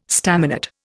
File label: "staminate", Indian